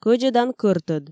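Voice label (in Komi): "кӧдзӧдан кӧртӧд", Komi, female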